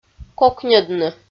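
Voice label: "кокньӧдны", Komi, female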